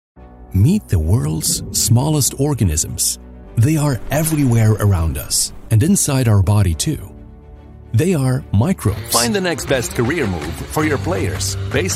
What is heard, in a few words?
english international corporate videos